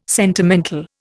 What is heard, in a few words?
"sentimental", Indian